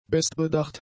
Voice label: "bestbedacht", german; adjectives